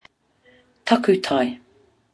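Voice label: "takutai", Māori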